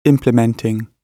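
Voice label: "implementing", British English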